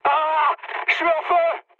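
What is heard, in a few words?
on fire, mood medium, French; War Thunder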